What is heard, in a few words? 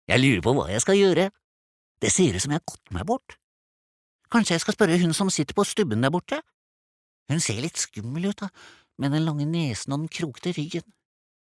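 Norwegian dub character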